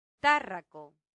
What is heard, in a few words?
"tarraco", latin; santillana